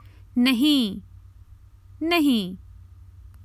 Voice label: Common Hindi Phrases for travel No

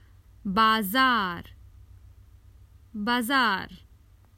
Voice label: Common Hindi Phrases for travel The market

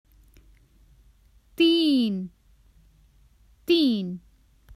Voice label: Common Hindi Phrases for travel Three

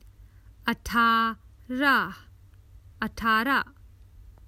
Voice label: Common Hindi Phrases for travel Eighteen

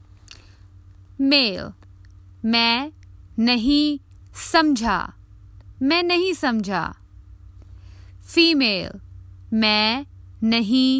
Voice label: Common Hindi Phrases for travel I dont understand

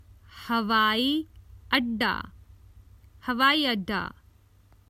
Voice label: Common Hindi Phrases for travel The airport

